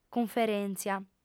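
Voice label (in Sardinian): "cunferèntzia", female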